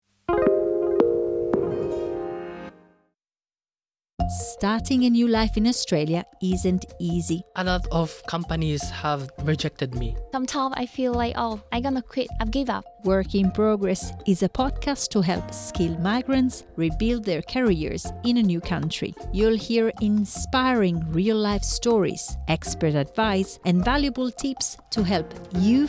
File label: gujarati news bulletin; SBS Gujarati